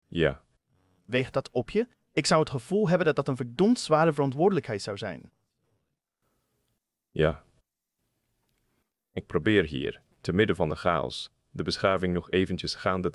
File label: dutch; Subformer